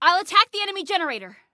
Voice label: Self Attack Generator, Female; Female 02